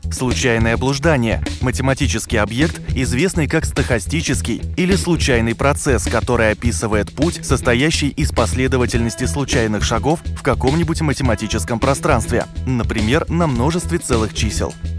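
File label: zakadroviy, voice-over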